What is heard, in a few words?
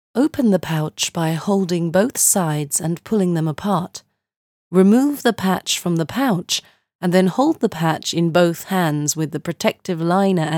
Medical Educational